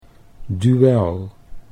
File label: "duel", Dutch